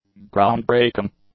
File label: "groundbreaking", english; adjectives